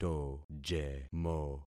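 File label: "tojemo", concatenated syllables; Affixing experiment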